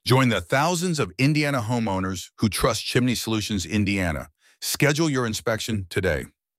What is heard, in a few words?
phrase cta